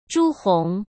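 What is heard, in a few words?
"朱红", Mandarin Chinese; chinese flashcards; hsk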